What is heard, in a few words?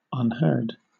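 "unheard", British English; pronunciations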